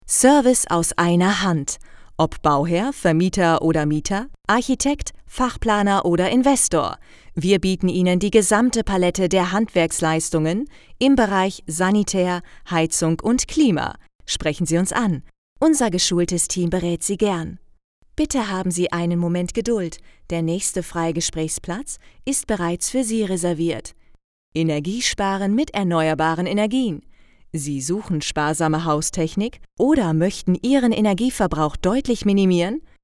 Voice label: Telefonansage

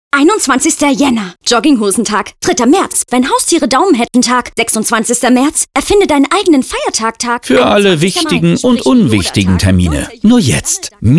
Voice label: Werbung Funkspot; Thalia

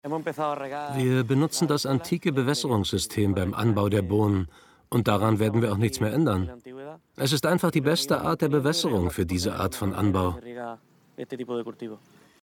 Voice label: Voiceover Wildes Andalusien; LOFT VOICES

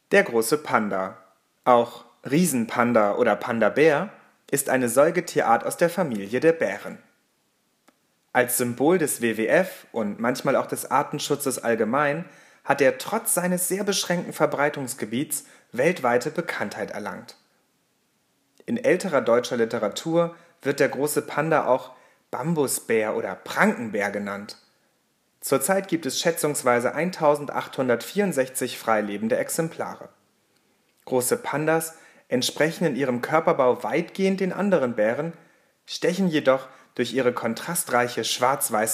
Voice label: Doku, German; Doku Panda; Off; LOFT VOICES

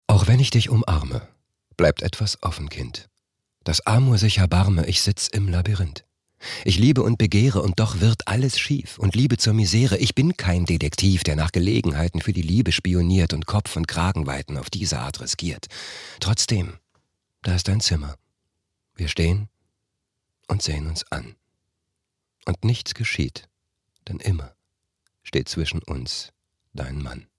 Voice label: erzaehlung

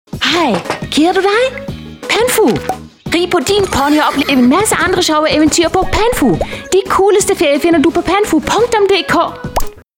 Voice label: Testspeak Daenisch

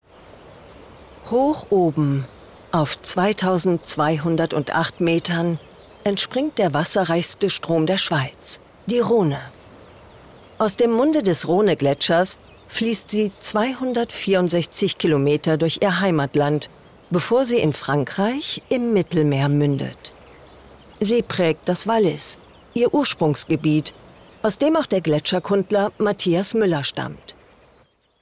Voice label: Doku, German; Doku Schweiz

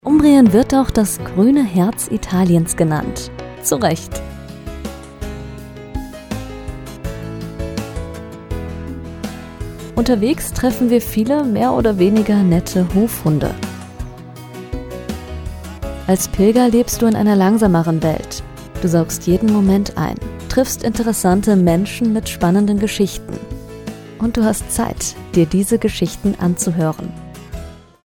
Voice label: Off Sprecherin Dokumentation Pilgern

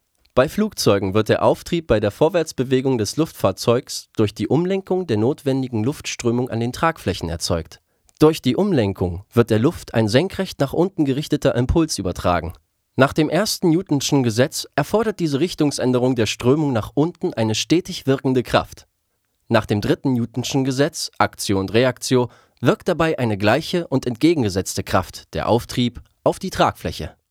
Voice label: Stimme pur; LOFT VOICES

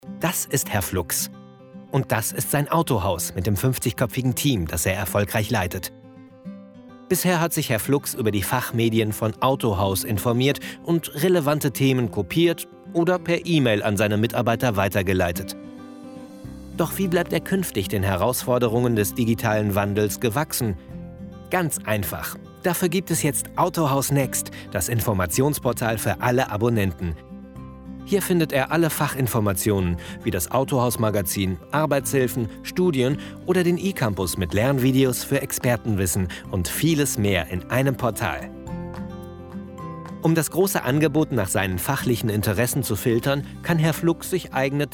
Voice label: Erklaerfilm

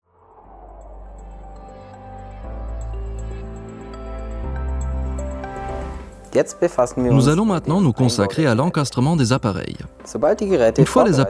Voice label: Franzoesisch Erklaervideo; Liebherr

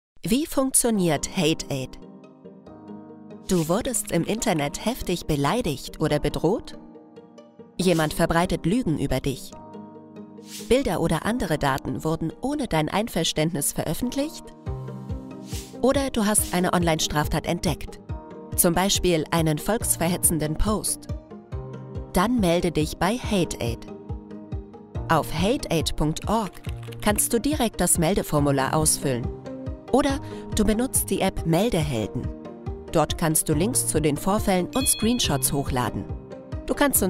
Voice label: Erklärfilm; HateAid; LOFT VOICES

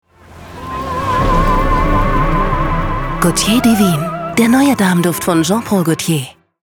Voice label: Werbung; Jean Paul Gaultier

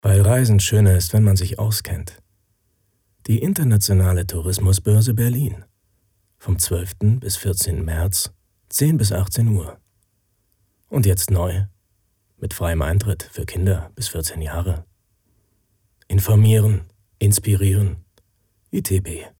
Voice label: werbung cool

